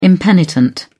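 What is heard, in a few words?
"impenitent", British English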